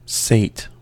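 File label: "sate", American English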